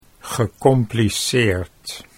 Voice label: "gecompliceerd", Dutch, slow

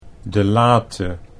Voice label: "delate", Dutch

